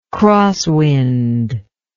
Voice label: "crosswind", english